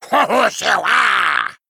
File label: Male Murloc Attack